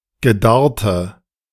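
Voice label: "gedarrte", German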